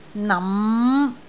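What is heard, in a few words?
"nam5", Cantonese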